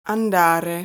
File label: "Andare", Italian